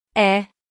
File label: Phoneme, Female; Commonscript; Lynguistykon